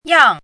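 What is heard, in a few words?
"yang4", Mandarin pinyin syllable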